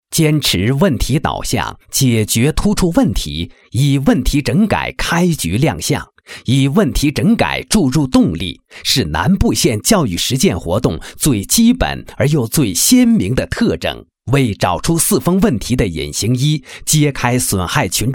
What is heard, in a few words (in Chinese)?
男, 国语男声配音